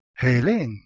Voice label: "helein" Ancient Greek

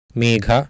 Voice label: "megha", Sanskrit

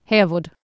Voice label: "heafod", Anglo Saxon

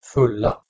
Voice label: "fulla", Proto-Germanic

